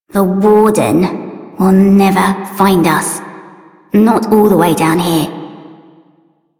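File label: Child Female; Warframe; Duviri; Sword Girl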